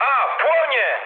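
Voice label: Polish on fire mood low; speech; War Thunder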